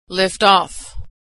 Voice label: "lift off" verb